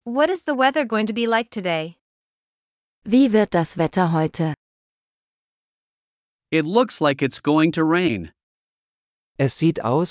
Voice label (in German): Dialog mit Uebersetzungen; Das Wetter